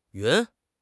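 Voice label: "yun2", Mandarin Chinese; HSK4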